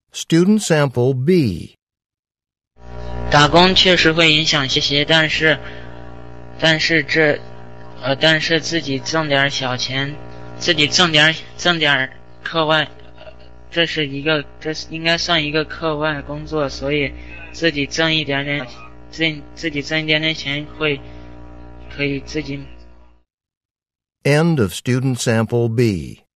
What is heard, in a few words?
chinese conversation; Conversation 5 Sample B (Score of 4)